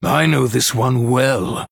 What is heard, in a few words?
Male Human TRIGGER Betrayal